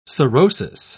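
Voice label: "sorosis", British English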